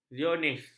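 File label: "zionis", Malay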